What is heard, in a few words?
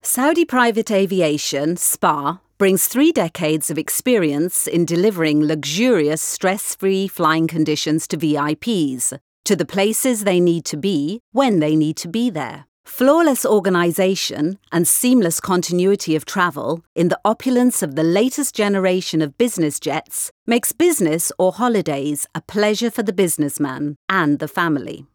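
SPA Waiting Message, English Recording; SPA Call Centre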